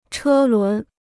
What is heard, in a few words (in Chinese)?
"车轮", Chinese